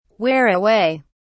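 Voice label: "wear away", British English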